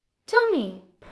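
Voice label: Voice Acting Spanish Female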